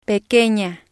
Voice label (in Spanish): "pequena", spanish